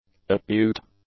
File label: "acute", English; adjectives